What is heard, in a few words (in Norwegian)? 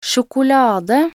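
"sjokolade", Norwegian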